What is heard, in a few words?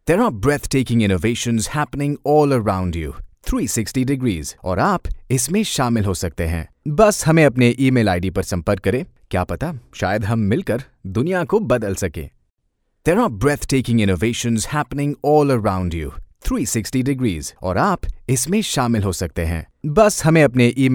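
Hinglish India male voice over, upbeat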